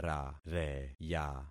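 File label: "rareya", concatenated syllables; Affixing experiment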